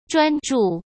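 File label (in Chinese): "专著", Mandarin Chinese; hsk; chinese-flashcards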